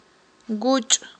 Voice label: "гучI", Aghul